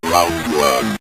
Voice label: round 2, synth voice; Kenney's Sound Pack